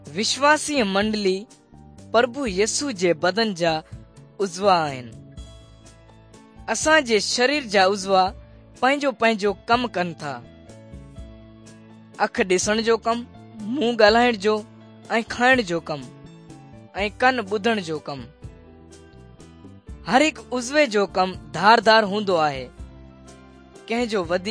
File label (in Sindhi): good news sindhi dukslinu, drama